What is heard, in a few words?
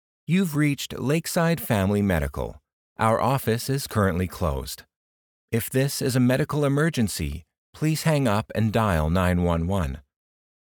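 Medical Office Voicemail Calm Caring Reassuring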